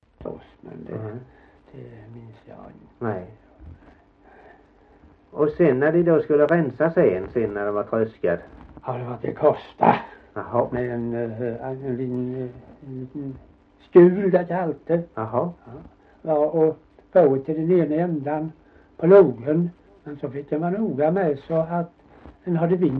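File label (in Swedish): Gällared Halland, dialekter; Folkminnen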